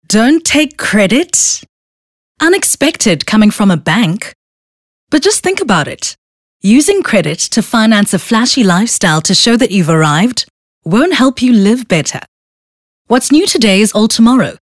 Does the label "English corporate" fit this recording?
Yes